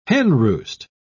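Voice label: "henroost", British English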